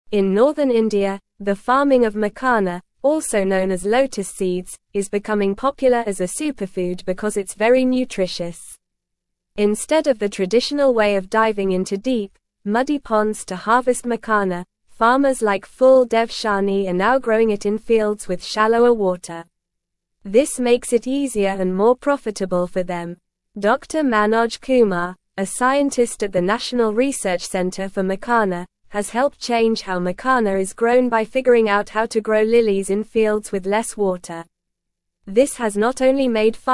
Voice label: English Upper Intermediate NORMAL Reading; Revolutionizing Makhana Cultivation Transforming Agriculture in Northern India